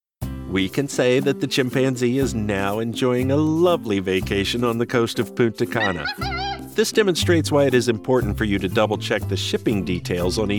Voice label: elearning composite

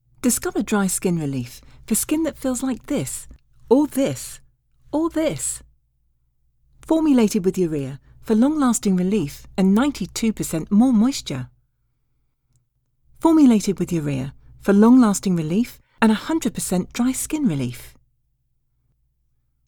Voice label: Body lotion commercial

